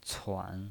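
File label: "cuan", Mandarin Chinese pinyin voice, third tone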